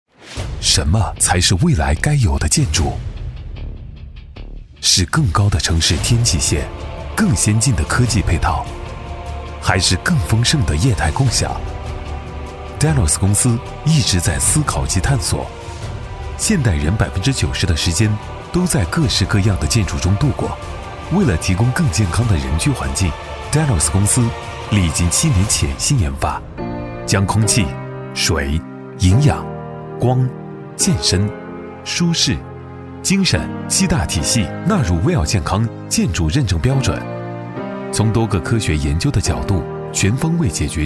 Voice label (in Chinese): 男国 宣传片 地产 大气; 星河地产